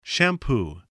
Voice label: "shampoo", spelling